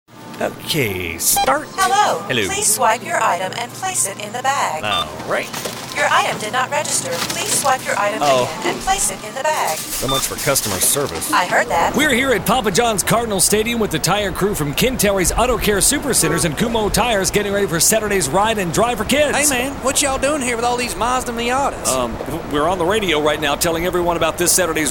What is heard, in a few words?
commercial dialogue